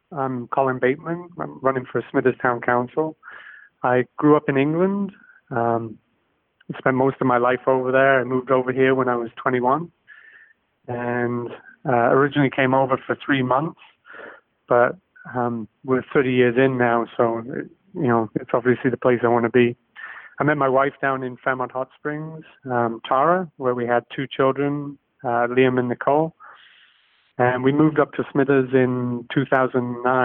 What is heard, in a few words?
NEWS PROMO